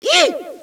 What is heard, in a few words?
eek, Echo inside caves, underground; DS; ML BOWSERSINSIDE; Mario